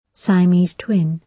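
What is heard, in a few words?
"siamese twin", British English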